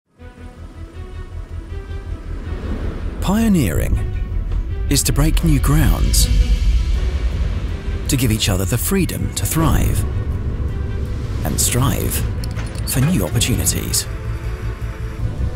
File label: recruitment film corporate video young UK male voice over; AllSeas Dare To Pioneer